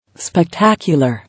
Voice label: "Spectacular", English